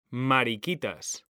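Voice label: "mariquitas", Spanish, male; mekanta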